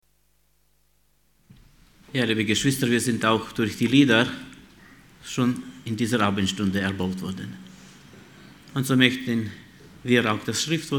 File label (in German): Ansage; Jesus ruft dich